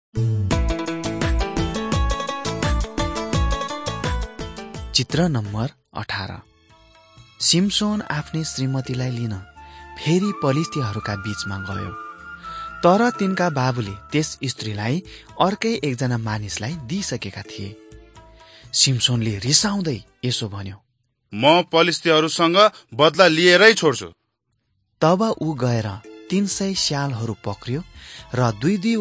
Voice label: victory through god nepali